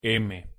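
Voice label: "m", Spanish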